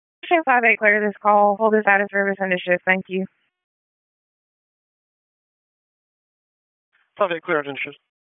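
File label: EMS Dispatch; wake p25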